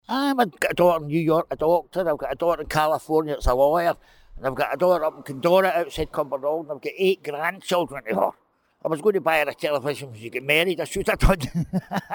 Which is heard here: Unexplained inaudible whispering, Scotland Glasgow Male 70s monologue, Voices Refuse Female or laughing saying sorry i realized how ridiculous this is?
Scotland Glasgow Male 70s monologue